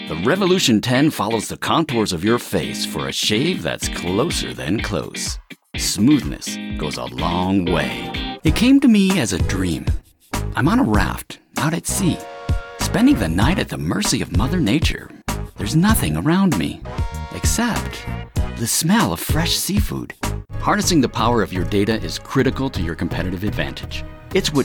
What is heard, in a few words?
Commercial